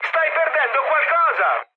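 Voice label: Italian tank damaged mood high, speech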